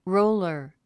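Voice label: "roller", English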